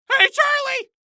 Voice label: Cartoon Loud Voice Hey Charlie